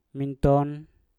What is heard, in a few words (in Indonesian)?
"minton" kemarin, Mpur